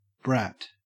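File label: "brat", Australian English; pronunciations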